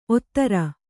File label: "ಓತಾರಿ", Kannada